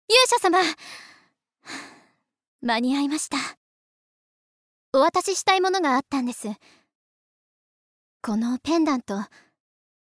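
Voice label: Princess, Japanese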